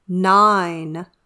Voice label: "nine", English